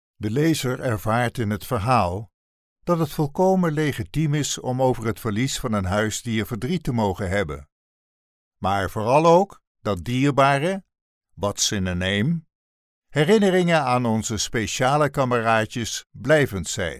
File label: male dutch voice over talent upbeat